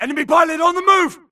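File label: grunt target Player Moving Mid; imc; Titanfall 2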